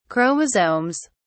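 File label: "chromosomes", British English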